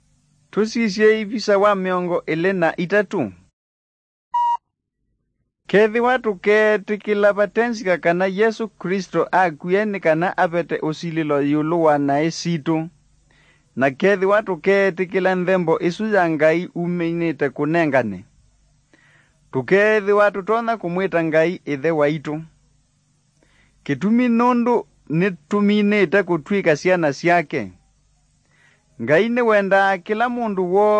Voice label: kikamba drama; good news; bible